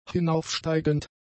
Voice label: "hinaufsteigend", German; adjectives

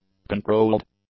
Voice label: "controlled", English; adjectives

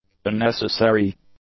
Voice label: "unnecessary", English; adjectives

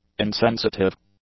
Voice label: "insensitive", English; adjectives

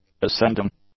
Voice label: "ascending", English; adjectives

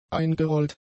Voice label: "eingerollt", German; adjectives

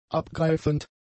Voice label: "abgreifend", German; adjectives

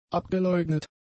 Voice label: "abgeleugnet", German; adjectives

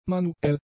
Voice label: "manuell", German; adjectives

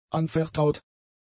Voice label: "anvertraut", German; adjectives

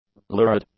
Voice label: "lurid", English; adjectives

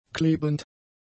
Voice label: "klebend", German; adjectives

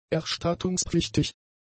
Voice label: "erstattungspflichtig", German; adjectives

